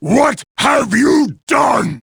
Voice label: jeers; garrosh